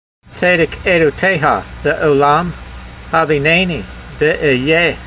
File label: voice, hebrew language; Psalm 119:144; tseydek aydoteyha le'o'lam haveenaynee ve'eh'yeyh